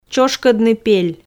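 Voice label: "чошкодны пель", Komi, female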